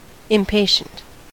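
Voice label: "impatient", US English; pronunciations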